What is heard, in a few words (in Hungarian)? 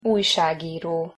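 "ujsagiro", Hungarian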